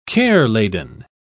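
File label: "care-laden", British English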